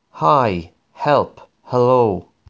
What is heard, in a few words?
high hello